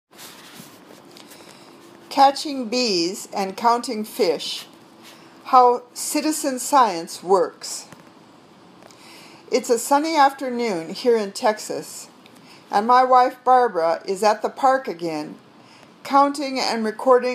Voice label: Reading Drill